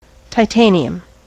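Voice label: "titanium", US English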